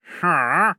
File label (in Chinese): 流浪商人否定声